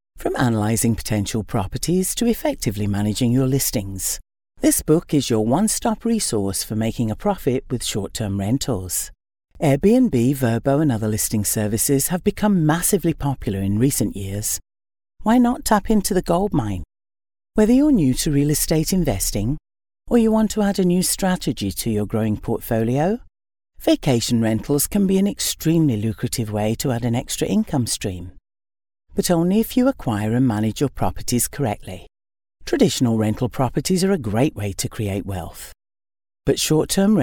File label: audiobook; Short term rentals